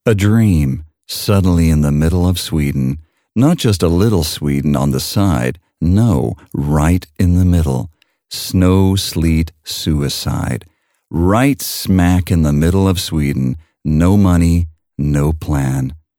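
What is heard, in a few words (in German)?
Hörbuch English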